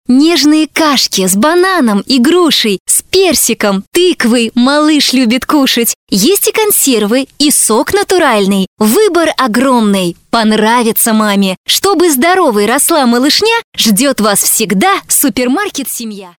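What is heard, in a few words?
Russian, female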